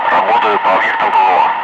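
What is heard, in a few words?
Russian speech, Ka-50 Wingman; Messages engaging sam; DCS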